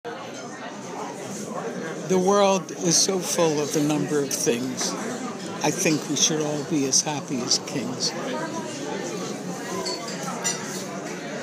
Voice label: Reciting